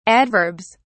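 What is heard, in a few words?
"adverbs", British English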